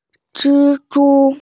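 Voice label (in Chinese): "zhi1zhu1", Mandarin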